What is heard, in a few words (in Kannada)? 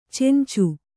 "ಚೆಂಚು", Kannada